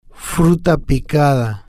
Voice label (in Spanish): "fruta picada", Spanish